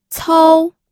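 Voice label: "cou", pinyin, first tone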